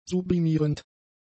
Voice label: "sublimierend", German; adjectives